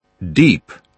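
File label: "deep", British English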